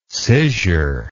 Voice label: "scissure", English